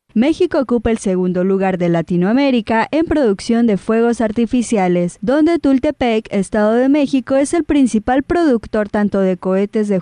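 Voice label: Spanish female; 西班牙语女; 外语; 小语种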